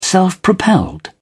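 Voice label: "self-propelled", British English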